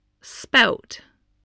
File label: "spout", voice